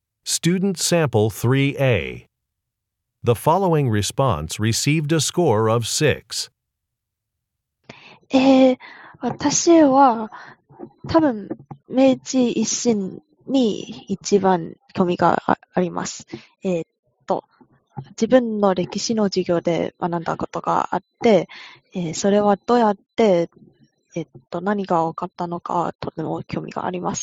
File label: japanese conversation